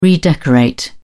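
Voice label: "redecorate", English, British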